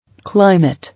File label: "Climate", English